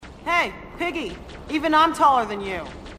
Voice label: female marine; marine sassy; h2dialogue